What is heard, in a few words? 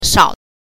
"shao", pinyin